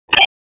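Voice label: Raleigh Police Special Operations Tactical, p25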